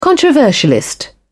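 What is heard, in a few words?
"controversialist", British English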